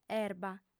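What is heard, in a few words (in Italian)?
"erba", lingua sarda, female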